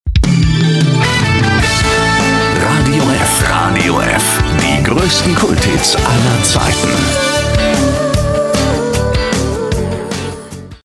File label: stationvoice jingle; RADIOF